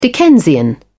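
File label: "dickensian", British English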